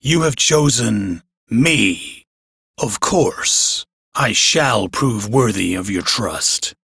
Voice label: Crew Hired, Male, en; Warframe; Railjack Crew; Arbiters Hexis